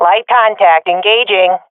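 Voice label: attacking, swat female; vo; Counter Strike Model Pack; CS:GO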